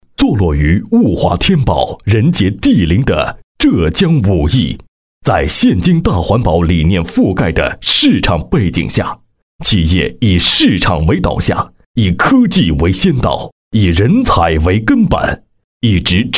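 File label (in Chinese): 国语 男声 专题片 大气抒情 大气浑厚磁性 沉稳; 环保企业专题片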